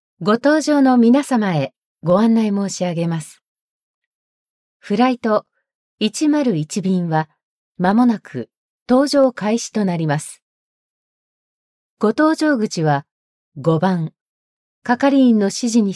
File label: japanese announcements; Japanese voice actor